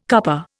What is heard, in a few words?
"cuppa", Indian